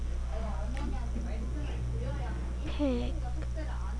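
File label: "pick", spoken word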